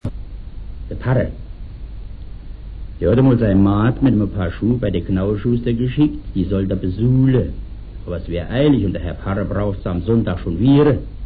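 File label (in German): de parre, O-Ton Hunsrück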